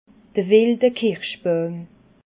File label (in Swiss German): "wildekirschbauim", alsace